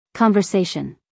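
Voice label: "conversation", American English female; everyone-can-use-english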